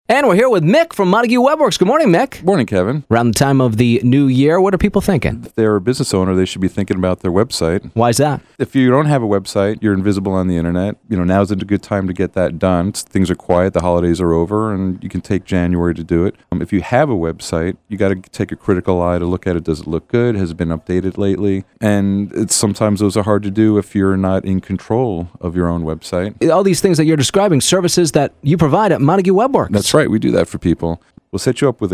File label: Radio Ad; New Year for Businesses; Montague WebWorks